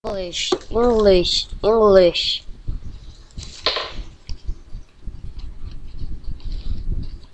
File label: English